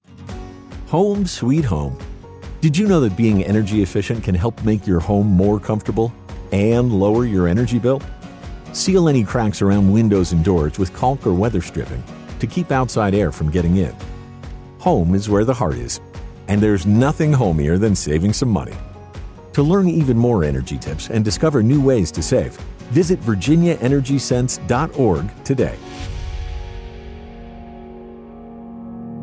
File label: Radio Spot; Home